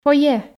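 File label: "фойе", Komi, female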